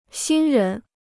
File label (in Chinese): "新人", Chinese